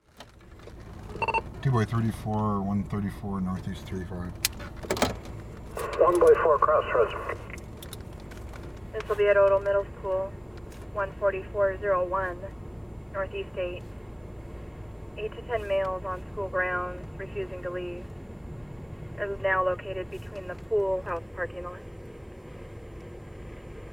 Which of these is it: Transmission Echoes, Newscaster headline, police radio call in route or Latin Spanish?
police radio call in route